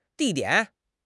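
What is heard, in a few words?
"di4 dian3", Chinese; HSK4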